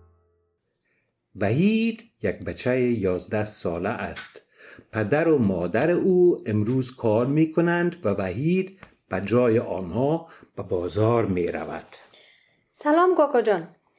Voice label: Dialog, Dari Persisch